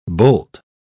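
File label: "boult", British English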